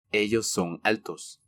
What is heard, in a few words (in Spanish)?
"Ellos son altos", Spanish